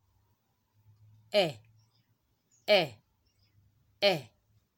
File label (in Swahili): Sauti e, kiswahili; kutamka irabu za kiswahili